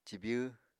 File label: "cibyw", Apatani